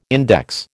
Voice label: "index", American

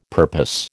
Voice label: "purpose", American

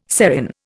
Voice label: "serin", Indian

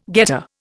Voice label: "getter", Indian

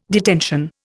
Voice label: "detention", Indian

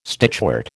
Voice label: "stitchwort", American

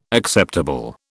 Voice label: "acceptable", British

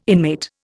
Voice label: "inmate", Indian

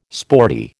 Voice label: "sporty", American

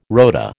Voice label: "rota", American

